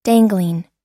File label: "dangling", pronounce